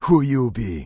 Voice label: Troll Male Shaman NPC Greeting

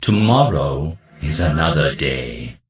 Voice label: Death Knight Male Low Farewell

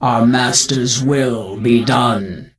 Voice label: Death Knight Female Low Greeting Scourge

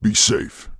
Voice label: Orc Male Standard NPC Farewell

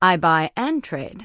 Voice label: Human Female Warrior NPC Vendor

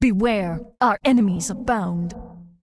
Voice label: Undead Female Warrior NPC Farewell

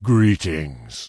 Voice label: Tauren Male Elder NPC Greeting

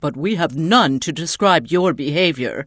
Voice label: Tuskarr Female Pissed; Warrior

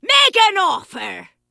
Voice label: Vrykul Female Magic User Vendor

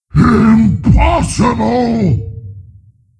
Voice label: Death, Creature; Steelbreaker

